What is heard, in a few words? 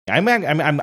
stuttering